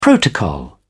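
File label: "protocol", British English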